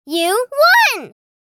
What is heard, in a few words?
You won kid girl vocalization